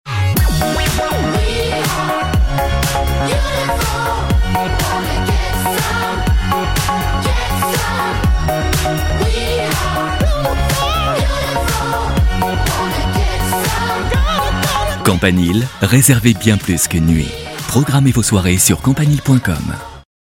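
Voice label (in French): chaleureux, Comédien voix off, publicité; Campanile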